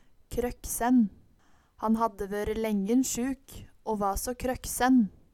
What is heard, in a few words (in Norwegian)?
kroksen, Numedalsmål